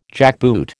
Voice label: "jackboot", American